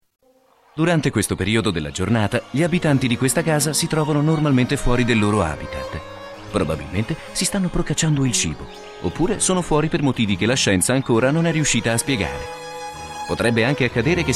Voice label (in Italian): Segreterie Telefoniche - Segreteria naturalistica